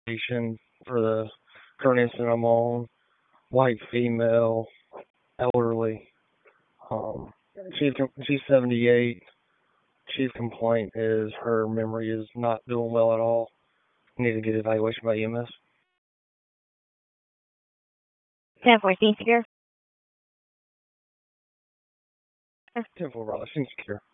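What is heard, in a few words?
Police Northeast District Disp, radio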